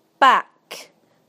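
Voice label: "back", British English; PictureDictionary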